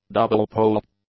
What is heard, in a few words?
"double-pole", English; adjectives